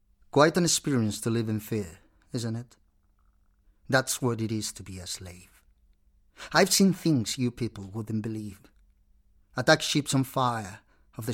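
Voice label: Spanish English, male, Narration, accent of Spain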